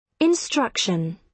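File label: "instruction", British English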